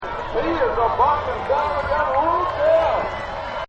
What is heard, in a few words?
Rodeo Announcer; Rodeo Announcer 6; Rodeo Sound Effects